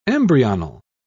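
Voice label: "embryonal", British English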